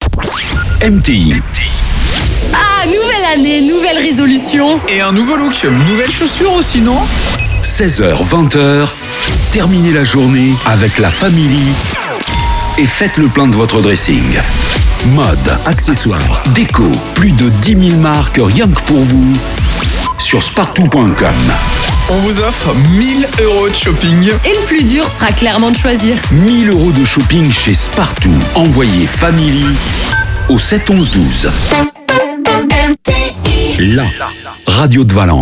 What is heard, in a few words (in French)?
PROMO REVEIL; SPARTOO